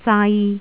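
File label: "zai3", Cantonese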